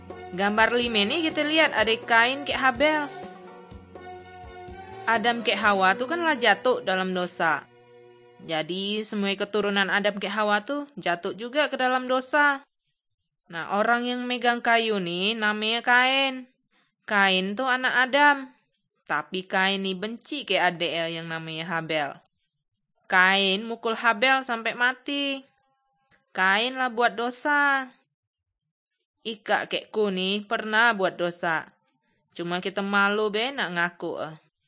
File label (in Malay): kabar baik good news malay bangka